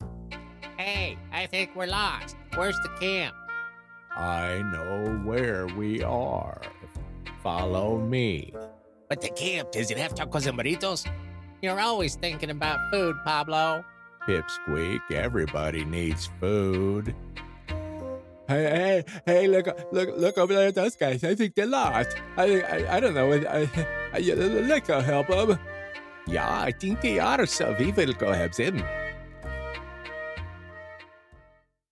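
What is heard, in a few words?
Character, voiceover; HB Studios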